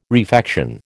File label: "refection", American